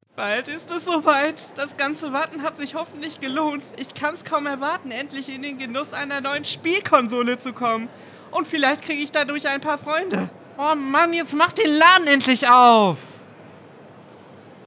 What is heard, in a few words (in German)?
Freude Aufgeregt